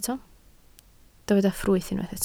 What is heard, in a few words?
"ffrwyth", Welsh